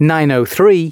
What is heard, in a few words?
announcements, male